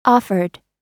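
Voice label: "offered", American English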